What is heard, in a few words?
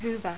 "hyvä", Finnish